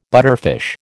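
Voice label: "butterfish", American English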